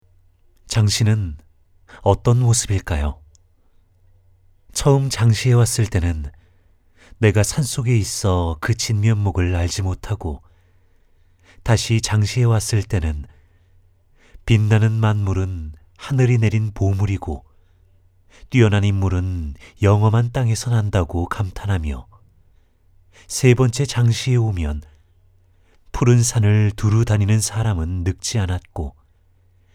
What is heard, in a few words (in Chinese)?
外籍韩语城市宣传片大气深情